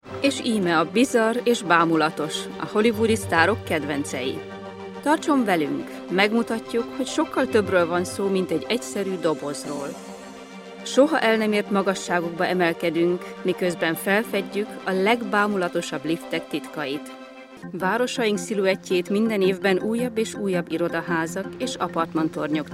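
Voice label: Hungarian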